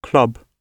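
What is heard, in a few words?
"club", British English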